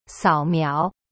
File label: "sao3miao2", Chinese; cyberchinese